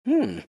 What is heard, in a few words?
happy; dota; tinker